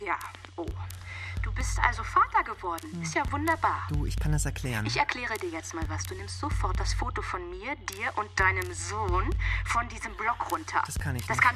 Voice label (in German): Hörspiel Dialog